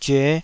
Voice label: "zyue5", taiwanese

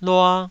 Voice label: "lua2", Taiwanese syllable

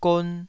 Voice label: "gon2", Taiwanese syllable

